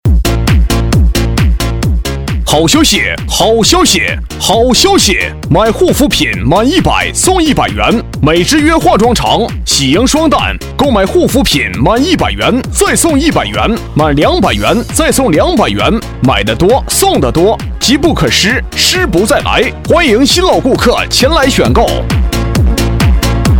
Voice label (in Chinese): 化妆品 男 激情; 促销; 美之约